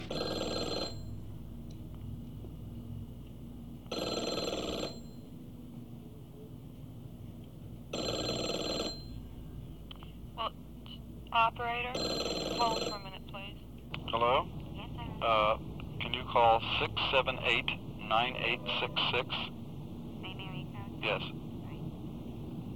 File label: Telephone Conversation